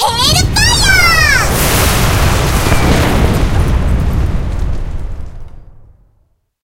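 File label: skill hellfire, Japanese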